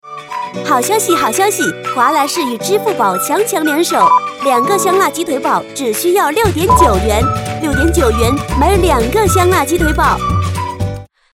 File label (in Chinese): 促销欢快; 华莱士